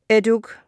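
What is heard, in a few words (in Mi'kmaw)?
"etuk", Mi'kmaq; wowkwis aqq kaqaquj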